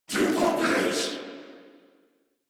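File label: Reload, English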